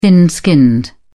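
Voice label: "thin-skinned", British English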